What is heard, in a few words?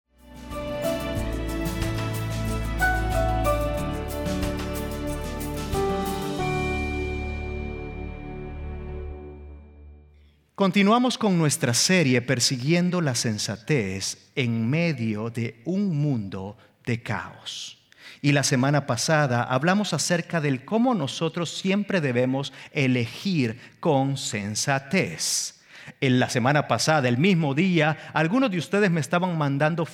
SERMON SPA